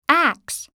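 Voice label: "ax", English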